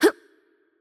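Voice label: Japanese attack light voice; bloom minigame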